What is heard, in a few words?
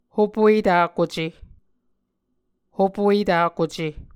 "hopuetakuce", Muscogee